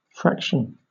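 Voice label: "fraction", British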